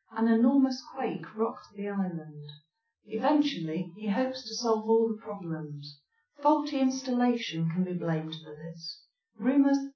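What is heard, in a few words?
female speech; SiSEC10; underdetermined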